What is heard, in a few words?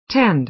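"tend", American English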